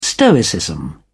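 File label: "stoicism", English, British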